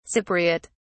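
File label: "cypriote", British English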